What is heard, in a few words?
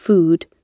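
"food" spoken, British English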